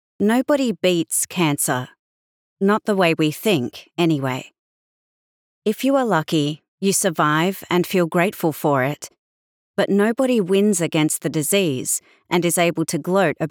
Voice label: Audiobook NONFICTION MEMOIR First Person AU; australian voice over; audiobook narration; The Real Fight